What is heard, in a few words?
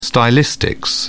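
"stylistics", English, uk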